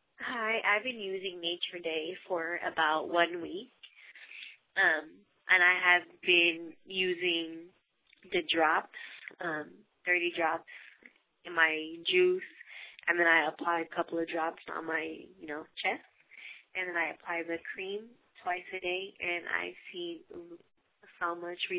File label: voice testimonial